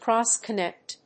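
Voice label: "cross-connect", English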